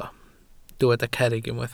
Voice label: "cerrig", Welsh